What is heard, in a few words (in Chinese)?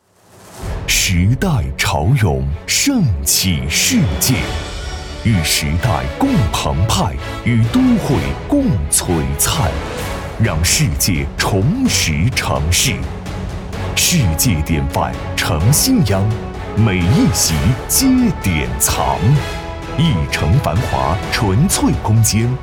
宣传房产宣传